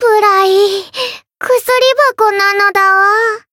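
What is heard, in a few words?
win barely, Japanese; thillelille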